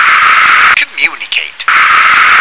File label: "communicate", English